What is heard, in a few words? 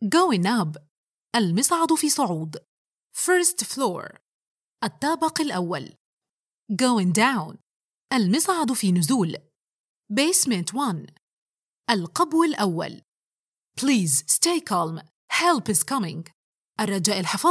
Elevator system, Informative; IVR